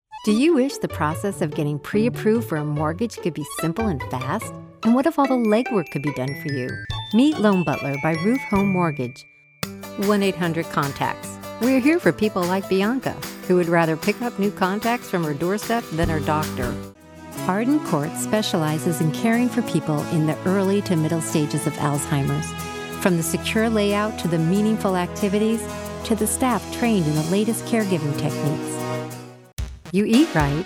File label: Commercial; AdvantageIVR